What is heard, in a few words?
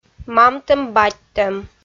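"мамтӧм-батьтӧм" Komi, female; kpv audio dict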